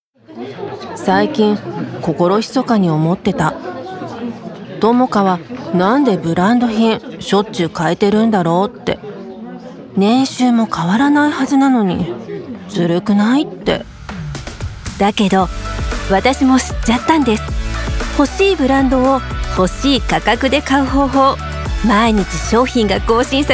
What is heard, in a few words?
Commercial CM 会話調, Voice Over, conversational; bilingual voice japan